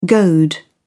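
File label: "goad", British English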